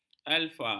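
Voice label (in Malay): "alfa", Malay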